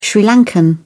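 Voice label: "sri lankan", British English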